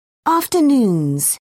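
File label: "afternoons", British English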